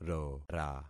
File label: "rora", concat syllables; Affixing experiment